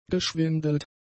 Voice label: "geschwindelt", German; adjectives